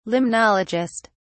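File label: "limnologist", British English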